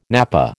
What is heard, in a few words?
"napa", American